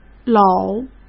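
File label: "搂", Mandarin Chinese; hsk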